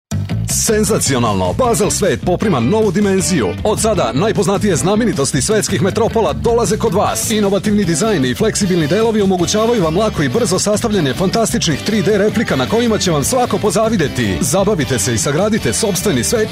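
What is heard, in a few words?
serbian male commercial; voice demos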